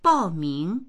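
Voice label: "bao ming", Mandarin; 报名; 申し込む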